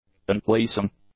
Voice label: "unpleasing", english; adjectives